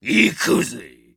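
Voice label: story, Japanese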